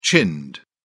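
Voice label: "chinned", English, British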